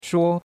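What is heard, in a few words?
"shuo1", Mandarin Chinese; Cours-de-Chinois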